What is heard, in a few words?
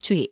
"주의", korean; vowel